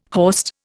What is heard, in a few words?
"horst", Indian